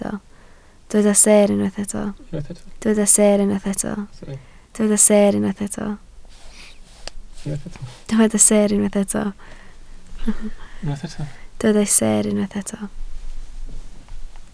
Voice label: "seer", Welsh

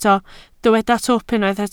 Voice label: "twp", Welsh

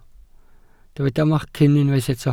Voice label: "macyn", welsh

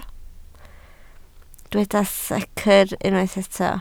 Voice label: "secr", Welsh

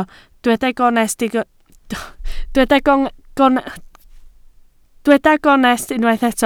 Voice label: "gonest", Welsh

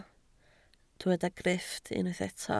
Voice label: "grifft", Welsh

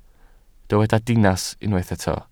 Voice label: "dinas", welsh

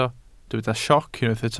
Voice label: "sioc", Welsh

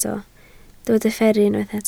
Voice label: "fferru", Welsh

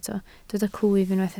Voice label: "clwyf", Welsh

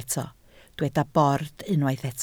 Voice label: "bord", Welsh

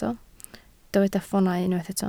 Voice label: "ffoonau", Welsh

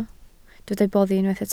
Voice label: "boddi", Welsh

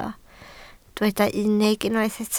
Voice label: "unig", Welsh

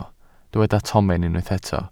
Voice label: "tomen", Welsh

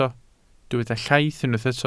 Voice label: "llaith", Welsh

